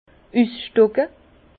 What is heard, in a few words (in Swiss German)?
"usstocke", Bas Rhin; vin; arracher la vigne